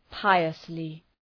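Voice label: "piously", English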